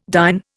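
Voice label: "dyn", Indian English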